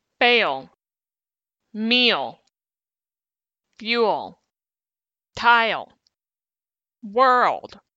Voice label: pitch drop l